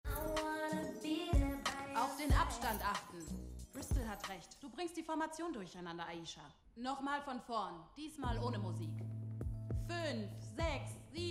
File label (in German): Synchron; Powerbook III